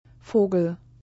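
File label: "Vogel", German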